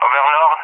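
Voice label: "Overlord", English speech; CallSign; Allied Flight; DCS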